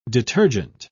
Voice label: "detergent", pronounce